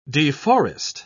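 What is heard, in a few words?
"deforest", British English, dictionary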